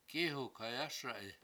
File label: hell dry it, washo; k7IhukhayASa7i